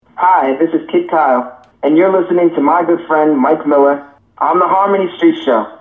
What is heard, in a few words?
promo